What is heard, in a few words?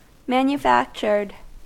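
"manufactured", US English; pronunciations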